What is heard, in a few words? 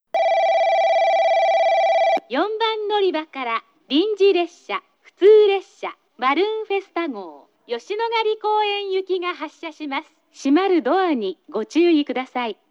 saga local yoshinogarikoen